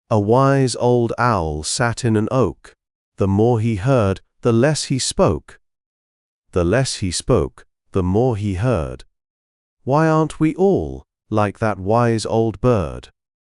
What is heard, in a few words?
male brit; A Wise Old Owl